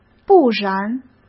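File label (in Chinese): "不然", Mandarin Chinese; hsk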